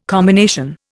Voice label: "combination", Indian

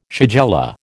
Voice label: "shigella", American

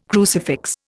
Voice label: "crucifix", Indian

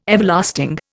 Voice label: "Everlasting", Indian

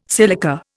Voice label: "silica", Indian

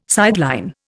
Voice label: "sideline", Indian

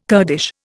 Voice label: "Kurdish", Indian

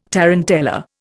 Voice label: "tarantella", Indian

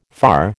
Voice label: "far", American

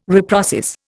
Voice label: "reprocess", Indian

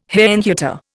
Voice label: "Herrnhuter", Indian

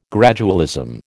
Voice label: "gradualism", American

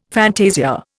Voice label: "fantasia", Indian

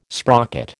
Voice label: "sprocket", American

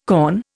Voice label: "kaon", Indian

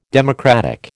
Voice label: "democratic", American

